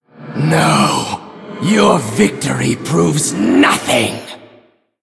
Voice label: Male Human Concede